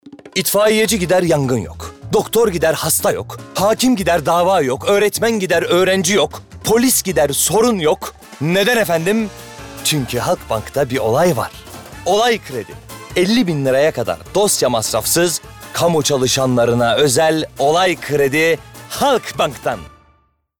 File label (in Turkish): Komik